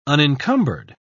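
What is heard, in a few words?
"unincumbered", British English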